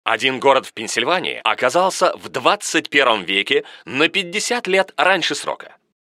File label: narrator